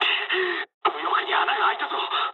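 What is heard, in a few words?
Japanese right wing mood med; War Thunder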